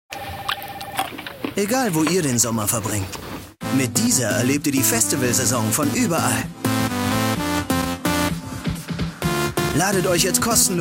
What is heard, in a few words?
tvspot; deezer